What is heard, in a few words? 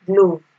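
"glov", Nigerian Pidgin, female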